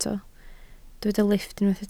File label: "lifft", Welsh